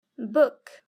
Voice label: "book", english; grade3; fun with sentence